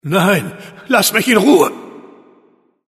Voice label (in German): river ger